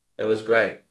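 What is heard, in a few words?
Voice Acting, Spanish, male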